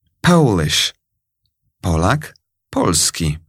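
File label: "polish", English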